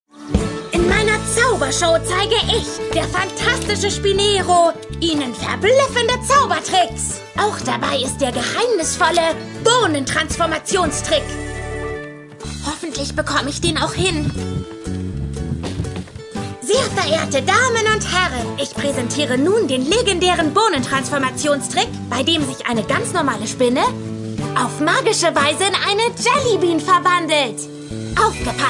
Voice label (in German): Synchron; Wurm Tagebuch